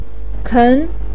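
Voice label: "ken", Mandarin Chinese, second tone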